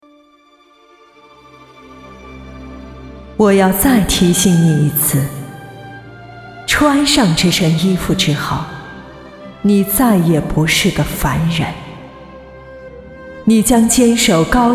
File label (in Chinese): 角色神仙空灵; 大话西游观音